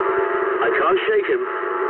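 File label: aircraft damaged mood low, speech English; War Thunder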